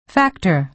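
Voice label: "factor", American English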